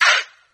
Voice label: "hey", group of men